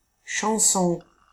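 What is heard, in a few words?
"chanson", French; famille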